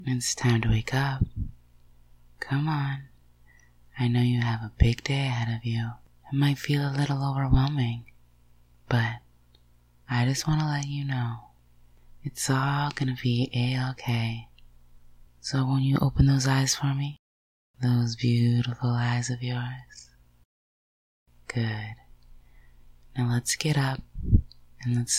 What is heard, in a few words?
Good morning uwu